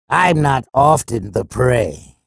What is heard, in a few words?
chatter negative; sorlag; respawn